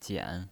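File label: "jian", third tone, pinyin voice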